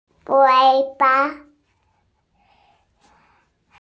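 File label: "wěi ba", Mandarin Chinese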